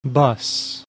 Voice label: "BUS", English

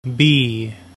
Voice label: "BEE", English

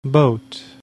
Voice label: "BOAT", English

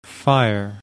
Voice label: "fire", English

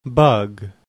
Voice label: "BUG", English

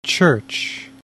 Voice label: "CHURCH", English

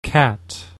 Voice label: "CAT", English

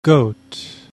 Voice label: "goat", English; g is a consonant

